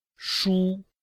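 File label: "shu1", Chinese; Cours-de-Chinois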